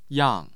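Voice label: "yang4", Mandarin Chinese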